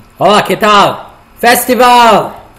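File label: "hola que tal", Spanish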